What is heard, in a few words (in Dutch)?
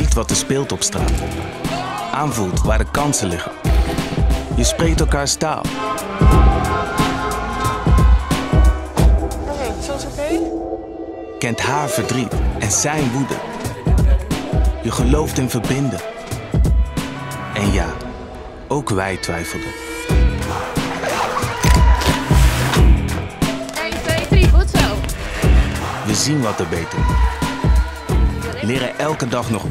NL TV Wervingscampagne Politie